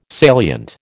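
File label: "salient", American English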